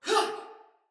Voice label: Attack Sinecure Soldier Female

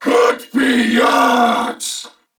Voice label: Attack, Corpus Venus Male; Dialog; Barks; Battle; Warframe; CorpusVenusMaleA

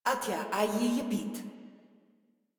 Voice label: Patrol Female; Warframe; Modular Corpus Female

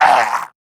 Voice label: pain, wolf gangster; Warframe; Grineer; Battle Barks

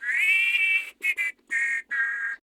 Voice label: Patrol, Male; Warframe; Grineer Male; Battle Barks

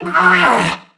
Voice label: Struggle Crewmen; Warframe; CorpusCrewmen; CrewmanD

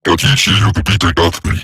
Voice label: Patrol Robot Large, Barks, Battle; Warframe; Eximus Corpus Robotic Large

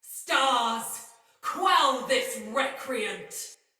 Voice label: See Player Shout Sinecure Soldier Female; Battle; Barks; Dialog

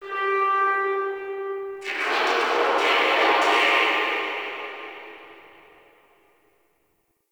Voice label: Guild Announcer; Warframe

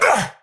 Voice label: Pain; Warframe; Tau; Dax; Knight

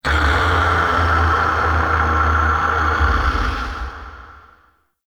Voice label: Weather Angry Voice; Ambience; Gameplay; Warframe; Duviri

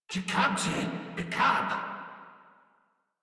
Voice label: Surprised Crewmen; Warframe; Corpus Crewmen; Crewman D

